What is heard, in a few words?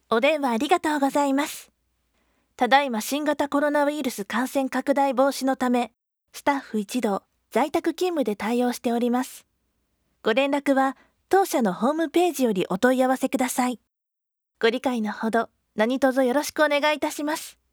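voice answering machine message; colonavirus rusuden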